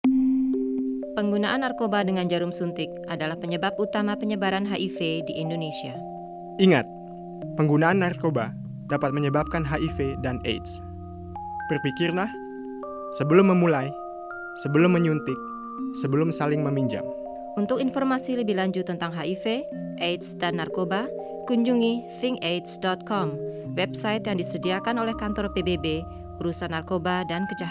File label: spot indonesian music